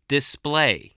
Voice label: "display", spelling